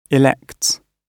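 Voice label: "elects", British English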